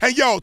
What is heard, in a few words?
"hey yo", voice; ooh ahh